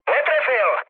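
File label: bomb failure, Czech, mood med; speech; War Thunder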